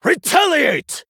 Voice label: Male Human Trigger